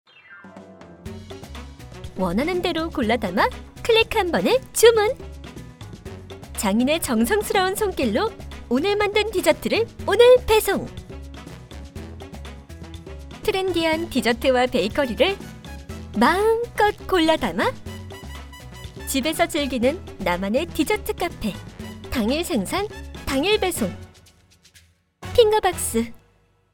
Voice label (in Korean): 기본 광고 톤 밝은 발랄한